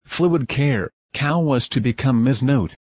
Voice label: voice reading